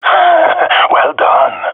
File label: compliment, jungle male epic; vo; agents; Source Model Packs; Counter Strike Model Pack; CS2